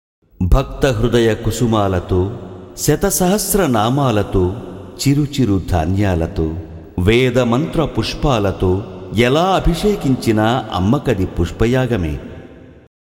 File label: Commentary